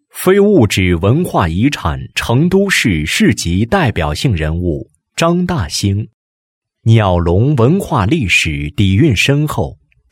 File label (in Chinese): 男, 纪录片; 成都非物质文化遗产-鸟笼人物历史